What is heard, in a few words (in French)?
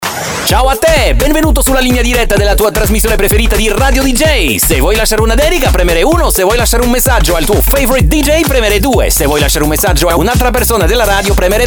Voix Hom Italienne